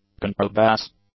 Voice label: "contrabass", English; nouns